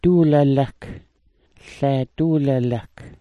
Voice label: "duula'lak", smalgyax

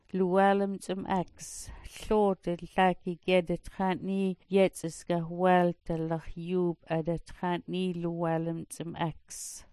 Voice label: "luwaalm ts'maks", smalgyax

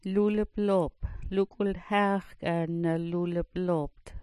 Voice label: "luliploop", smalgyax

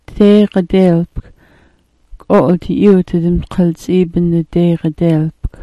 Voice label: "deegadeelpk", smalgyax

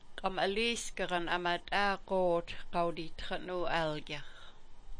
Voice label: "ama t'aa Goot", smalgyax

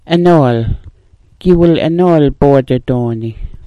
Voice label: "anool", smalgyax

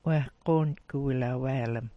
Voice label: "'wah gontk", smalgyax

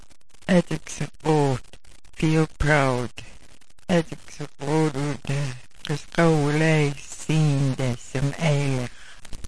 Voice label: "adziksa goot", Smalgyax

